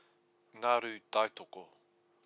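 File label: "ngaru taitoko", Māori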